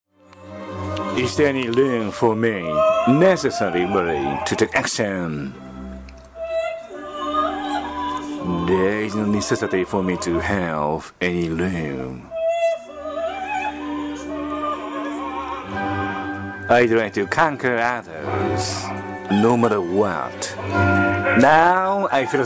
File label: recitative-style lecture